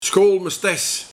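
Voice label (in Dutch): "Skoolmestes", dialect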